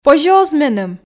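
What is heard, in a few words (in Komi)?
позьӧ оз меным, Komi, female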